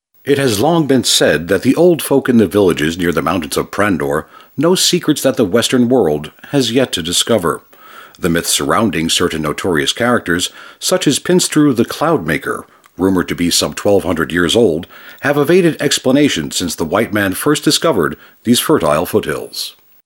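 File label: male English documentary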